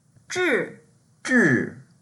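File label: "zhi4 1", Mandarin Chinese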